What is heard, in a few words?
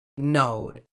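"node", medical English, British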